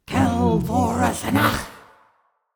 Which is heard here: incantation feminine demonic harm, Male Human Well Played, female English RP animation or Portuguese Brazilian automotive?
incantation feminine demonic harm